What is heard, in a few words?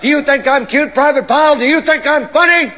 chat; sgthartmann